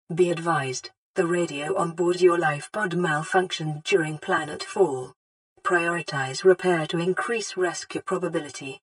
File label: Radio Warning; Story